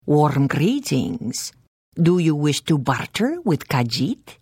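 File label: Service, Khajiit female; The Elder Scrolls III - Morrowind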